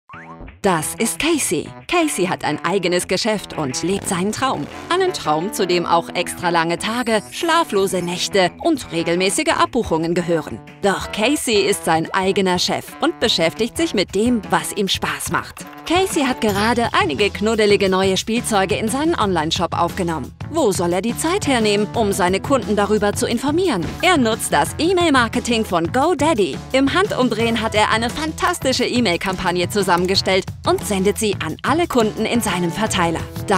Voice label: ERKLAERFILM dynamisch verbindlich; GoDaddy; email Marketing

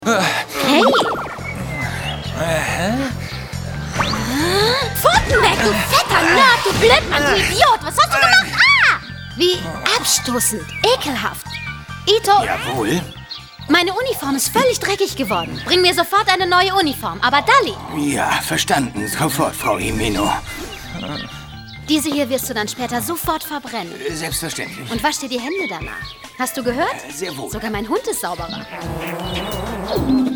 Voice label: Manga Synchro

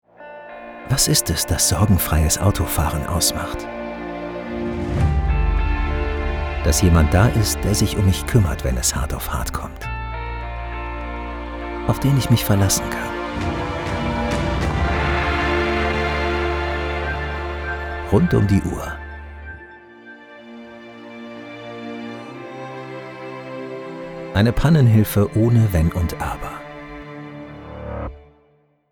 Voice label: Werbung

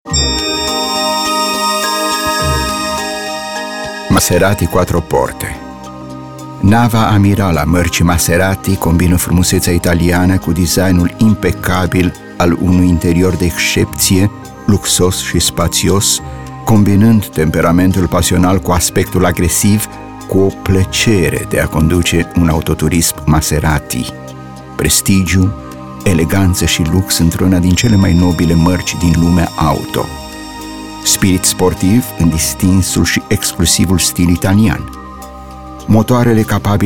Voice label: RUMAENISCH Werbung; Maserati

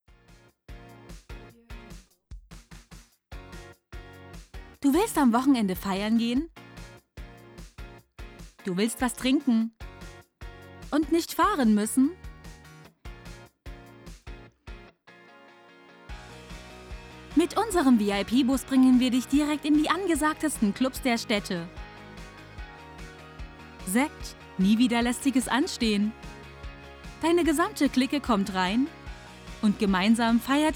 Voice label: Kinowerbung

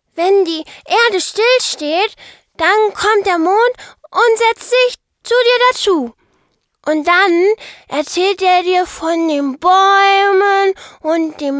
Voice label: trickstimme

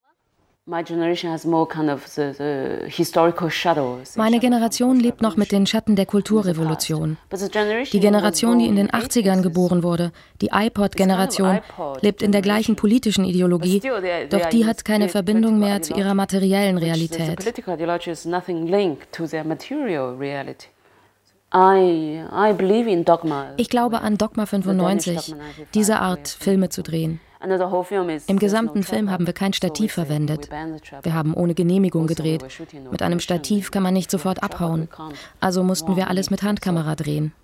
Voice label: voiceover Kinomagazin

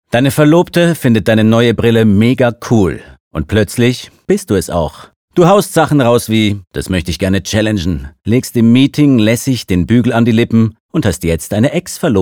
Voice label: Funkspot

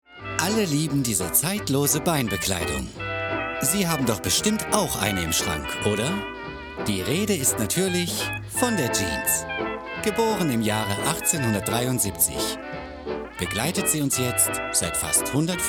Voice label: Off Image Doku Beitrag

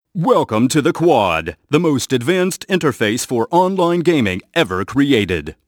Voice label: Trailer; Derrick

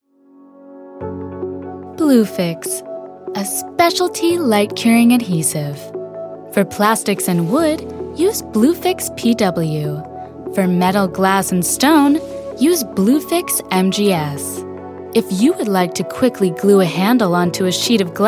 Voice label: ENGLISCH USA FEMALE Imagefilm Klebstoff; BluFIXX

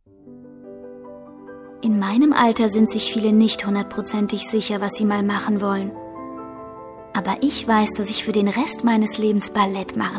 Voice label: Reportage; Teenager

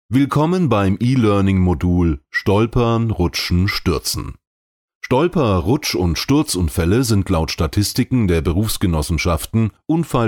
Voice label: eLearning

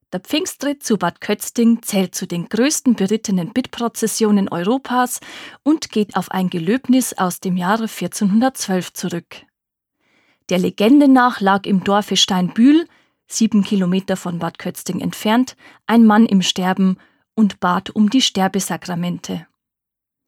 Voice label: Dokumentation bayerisch

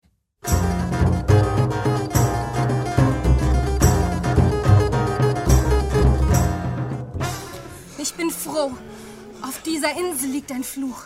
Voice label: Auszug Hoerspiel; Nathan Fox

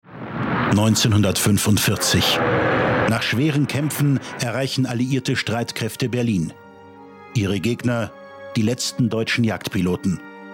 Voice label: Doku; Hitlers letzte Flieger

